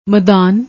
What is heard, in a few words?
"madaan", Punjabi; ਮਦਾਨ